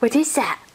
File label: what is that, female; questions